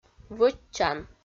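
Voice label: "вӧччан", Komi, female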